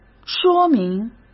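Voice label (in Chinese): "说明", Mandarin; chinese-flashcards; hsk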